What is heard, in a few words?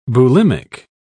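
"bulimic", British English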